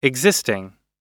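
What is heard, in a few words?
"existing", US English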